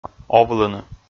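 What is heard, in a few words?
"овлыны", Komi, male; kpv audio dict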